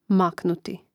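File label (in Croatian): "maknuti", Croatian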